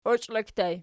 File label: "oichlekki", Archi